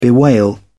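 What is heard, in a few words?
"bewail", British English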